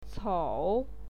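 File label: "cou", Mandarin Chinese, third tone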